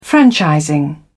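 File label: "franchising", English, British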